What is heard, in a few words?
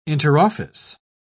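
"interoffice", British English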